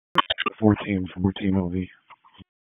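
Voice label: FIRE Operations, p25; FIRE OPS 1; wake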